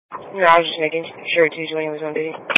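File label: Police Patrol (Dispatch)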